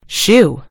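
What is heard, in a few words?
"shoe", British English; gcompris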